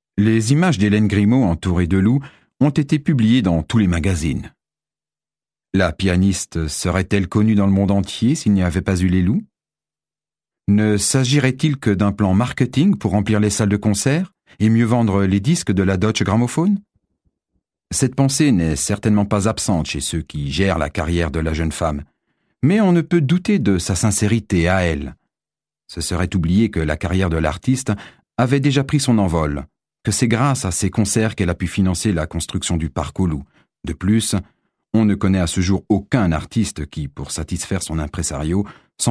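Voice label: French, Kommentar Neutral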